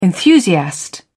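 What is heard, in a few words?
"enthusiast", English, British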